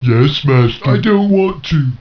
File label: annoyed; ogre; orcs; war2